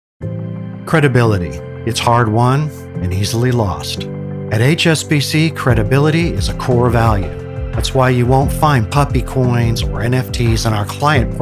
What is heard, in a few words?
Commercial